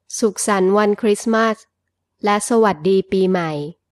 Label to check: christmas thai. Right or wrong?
Right